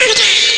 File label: whisper; Amiga Soundtracker Sample Packs